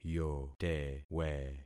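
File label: "yotewe", concatenated syllables; Affixing experiment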